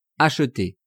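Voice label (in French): "acheté", French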